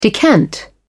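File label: "decant", English, British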